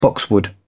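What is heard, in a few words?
"boxwood", English, British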